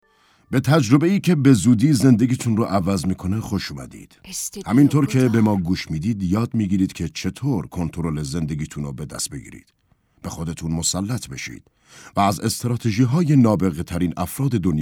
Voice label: audiobook